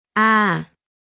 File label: Phoneme Female; Commonscript; Lynguistykon